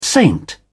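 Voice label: "saint", British English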